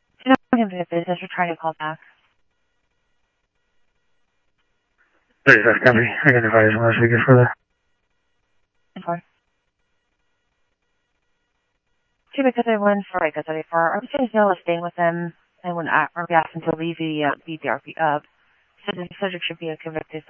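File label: Simulcast police department, scanner audio trunk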